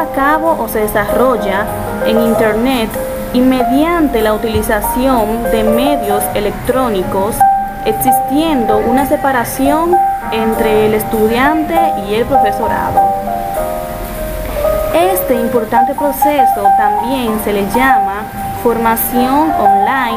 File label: e-learning